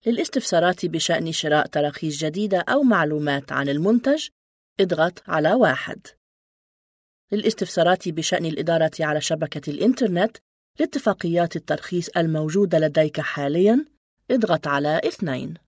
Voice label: Arabic